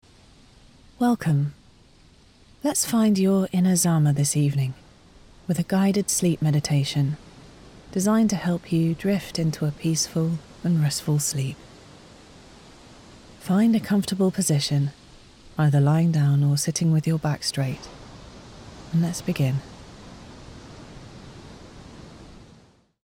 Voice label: Sleep meditation